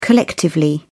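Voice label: "collectively", British English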